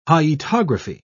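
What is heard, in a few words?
"hyetography", British English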